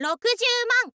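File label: "rokuzyuuman", Japanese, girl; voice; info girl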